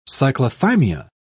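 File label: "cyclothymia", British English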